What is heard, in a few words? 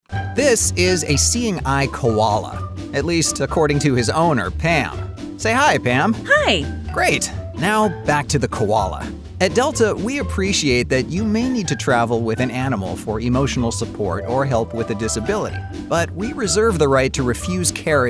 Explainer